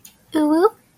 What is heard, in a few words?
girl uwu